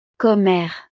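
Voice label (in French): "commere", French; estados animo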